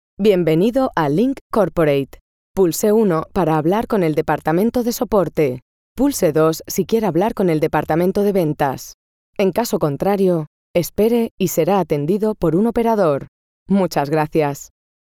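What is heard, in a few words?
Spanish, IVR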